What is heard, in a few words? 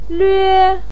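"lve", Mandarin Chinese first tone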